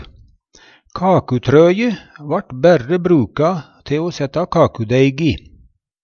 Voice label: kakutrøg, Numedalsmål

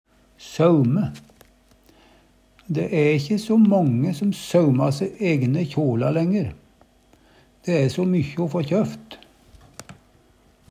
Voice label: saume, Numedalsmål

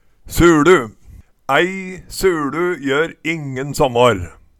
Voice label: suLu, Numedalsmål

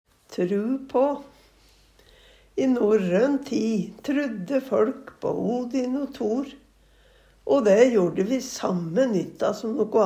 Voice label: tru på, Numedalsmål

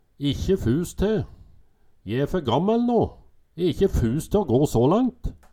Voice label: ikkje fus te, Numedalsmål

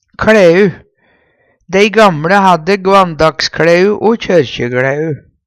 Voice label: "kLæu", Numedalsmål; Dei gamLe hadde gvandagskLæu o kjørkjekLæu.

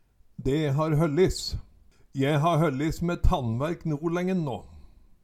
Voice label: "de har hollis", Numedalsmål; Je har høllis me tannværk nolengen nå

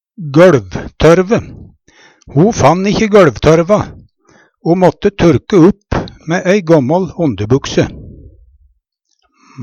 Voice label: goLvtorve, Numedalsmål; gøLvtørve

